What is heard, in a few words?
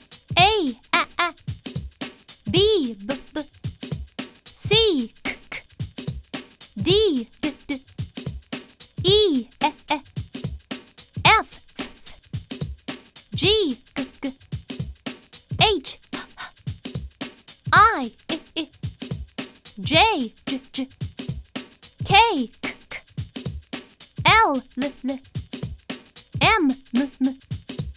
Alphabet Jingle Things Around Us Say The Key Words; Unit 4